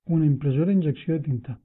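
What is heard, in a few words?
inkjet printer desc, catalan; tuxpaint-stamps; household; electronics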